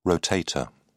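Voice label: "rotator", British English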